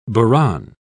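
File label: "buran", British English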